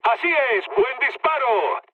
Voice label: player killed enemy, mood low, speech; War Thunder

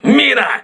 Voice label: voice message mine, crew ships russian

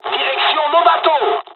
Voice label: dialog, dialogs french; War Thunder

